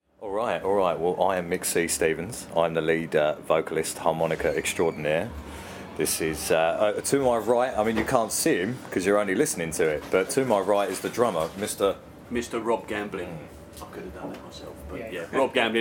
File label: Interview